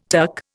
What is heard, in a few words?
"tuck", Indian